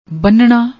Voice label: "bannanna", Punjabi